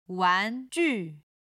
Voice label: "wan2 ju4", Chinese

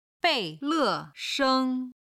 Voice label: "bei4 le4 sheng1", chinese

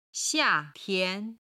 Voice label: "xia4 tian2", Chinese

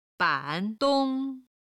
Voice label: "ban3 dong1", Chinese

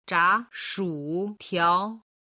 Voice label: "zha shu tiao", Chinese

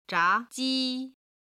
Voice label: "zha2ji1", Chinese

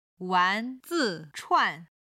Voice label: "wan2zi5chuan4", chinese

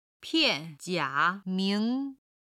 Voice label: "pian4jia3ming2", chinese

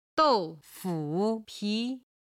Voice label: "dou4fu3pi2", Chinese

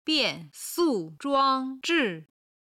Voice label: "bian4 su4 zhuang1 zhi4", chinese

